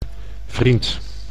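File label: "vriend", Dutch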